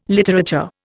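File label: "literature", Indian English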